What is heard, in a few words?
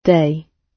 "day", gb